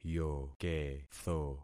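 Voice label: "yoketho", concatenated syllables; Affixing experiment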